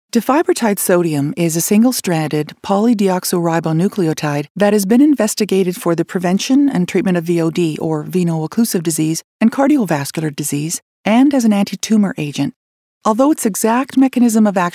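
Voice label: Medical Conversational, female voice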